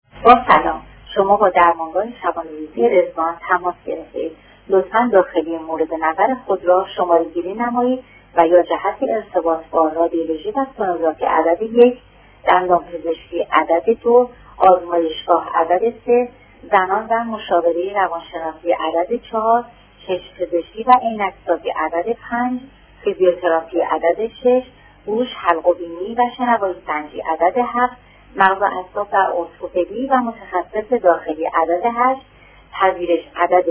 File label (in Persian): نمونه غیر حرفه ای در تلفن گویا; تاثیر منفی در مخاطب; درمانگاه رضوان